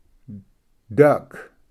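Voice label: "duck", English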